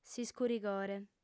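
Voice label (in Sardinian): "s'iscurigore", female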